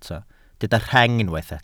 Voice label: "rheng", welsh